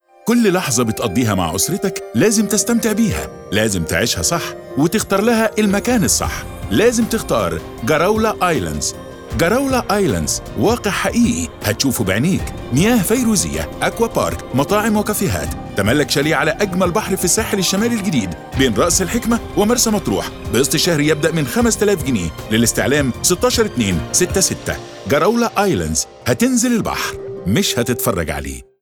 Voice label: Radio Ad; Garawla